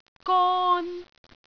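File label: "gon1", Cantonese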